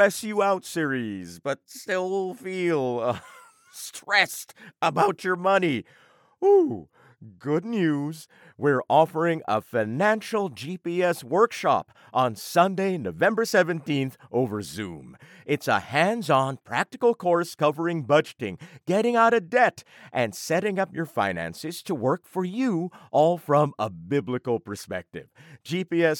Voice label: Church Announcement